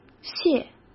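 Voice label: "卸", Mandarin Chinese; hsk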